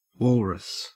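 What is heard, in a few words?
"walrus", Australian English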